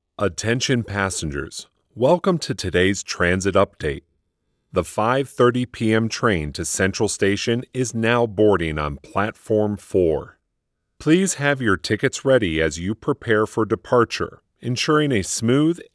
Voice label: public address announcement